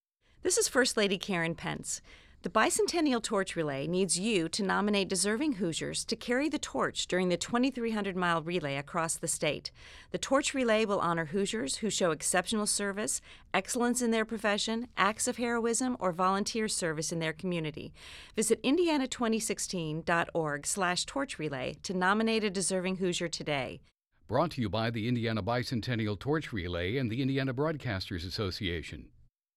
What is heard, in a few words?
Torch Relay PSA